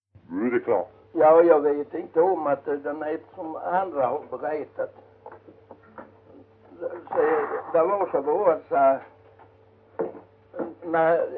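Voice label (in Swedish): Jämshög Blekinge, Svenska sagor dialekter; Folkminnen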